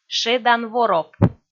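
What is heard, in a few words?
"шедан вороп", Komi, female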